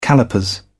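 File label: "callipers", British English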